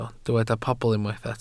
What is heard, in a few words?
"pobl", Welsh